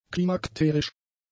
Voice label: "klimakterisch", German; adjectives